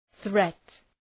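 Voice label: "threat", English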